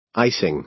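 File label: "icing", American English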